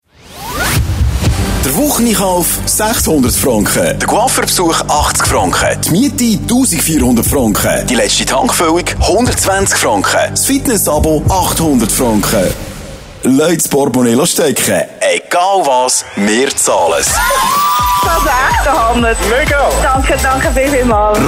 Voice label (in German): Trailer; Radio32; R32 zahlt alles 2024